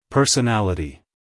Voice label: "personality" us male; everyone-can-use-english